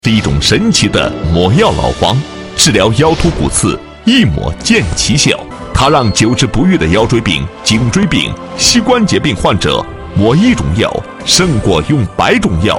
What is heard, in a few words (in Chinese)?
男7号 广告 电视广告, 国语男声配音; 黑药; 全能型